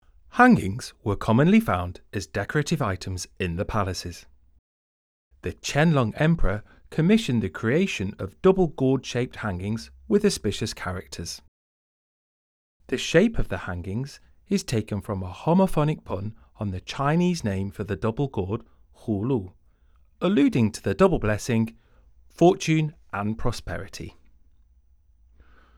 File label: Eng VO; audio guide; g2-from-dawn-to-dusk